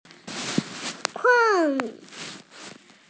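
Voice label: "kun4", Mandarin Chinese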